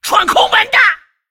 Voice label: attack, zh